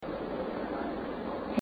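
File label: Delightful, paranormal evidence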